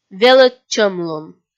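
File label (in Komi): "велӧдчӧмлун", Komi, female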